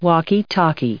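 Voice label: "walkie talkie", English; noun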